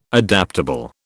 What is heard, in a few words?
"adaptable", British